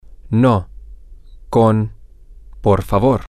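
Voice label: no With X Please, spanish, male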